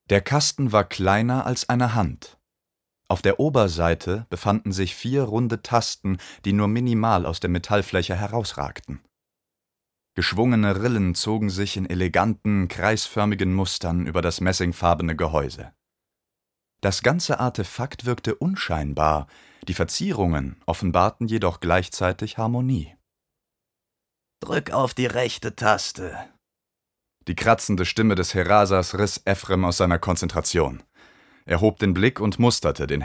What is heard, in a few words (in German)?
Hoerbuch; Stellaris